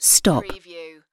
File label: UK female stop; playcontrols; lynne publishing